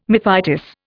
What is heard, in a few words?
"mephitis", Indian